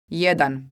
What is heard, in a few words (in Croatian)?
"jedan", croatian